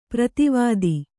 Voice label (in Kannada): "ಪರದೇವತೆ", Kannada